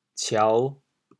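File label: "ciao5", Teochew, chaoyang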